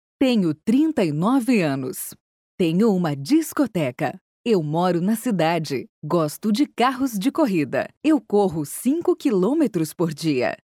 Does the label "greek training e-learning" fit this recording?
No